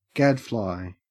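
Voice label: "gadfly", Australian English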